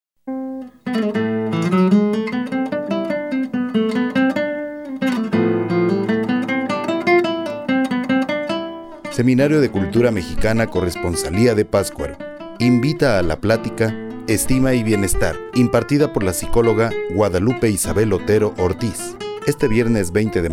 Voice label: promo